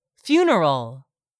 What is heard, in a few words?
"funeral", English; 3000 words